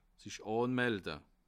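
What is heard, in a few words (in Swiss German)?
"anmelde", Bas Rhin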